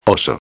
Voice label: "oso", espanhol

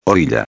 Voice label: "orilla", espanhol

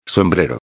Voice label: "sombrero", espanhol

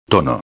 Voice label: "tono", espanhol

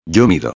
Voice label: "mido", espanhol

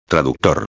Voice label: "traductor", espanhol

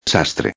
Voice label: "sastre", espanhol

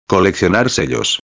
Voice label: "coleccionar sellos", espanhol; colecionar selos

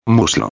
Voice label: "muslo", espanhol; palavra do dia

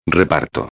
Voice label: "reparto", espanhol

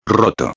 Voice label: "roto", espanhol

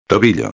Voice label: "tobillo", espanhol

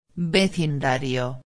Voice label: "vecindario", espanhol

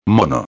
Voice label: "mono", espanhol; palavra do dia

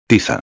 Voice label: "tiza", espanhol

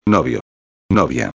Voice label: "novio a", espanhol; palavra do dia

